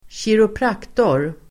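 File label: "kiropraktor", Swedish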